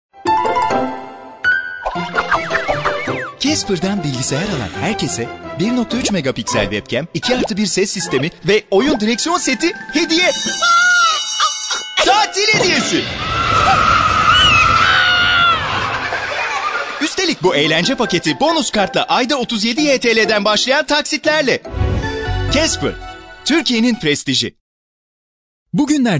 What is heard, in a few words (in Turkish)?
GENC ERKEK